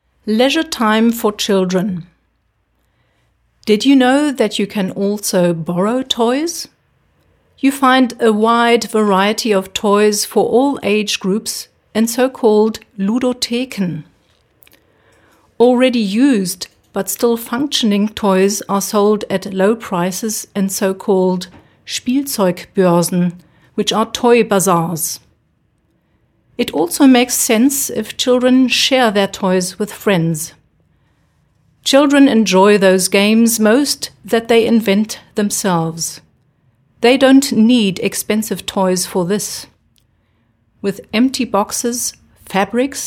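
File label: English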